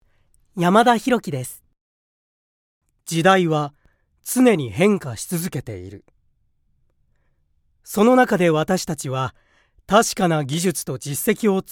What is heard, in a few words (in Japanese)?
企業CMナレーション